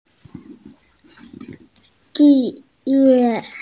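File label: "bi4 ye4", Mandarin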